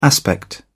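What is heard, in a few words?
"aspect", English, British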